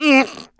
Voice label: killed, English Voice